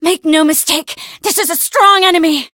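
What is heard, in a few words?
encounter fear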